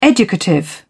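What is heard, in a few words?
"educative", British English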